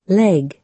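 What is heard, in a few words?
"leg", American English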